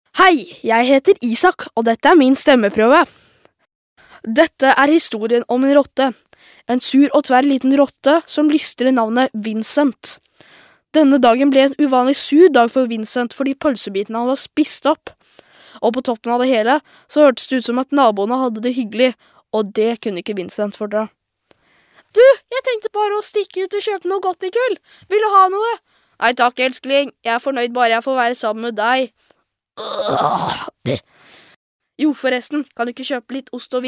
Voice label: norwegian boy